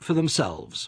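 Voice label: "for themselveS", Standard Southern British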